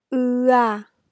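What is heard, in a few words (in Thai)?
"เอือ", Thai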